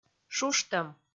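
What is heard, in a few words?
"шуштӧм", Komi, female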